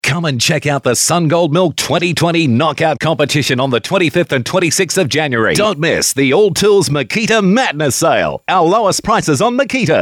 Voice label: Hard Sell